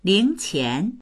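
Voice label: "ling2qian2", Mandarin Chinese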